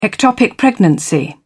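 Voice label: "ectopic pregnancy", British English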